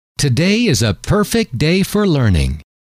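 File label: today Is A Perfect Day For Learning, male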